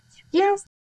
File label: Voice Acting es